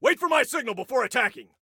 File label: Attack Wait, Soldier Male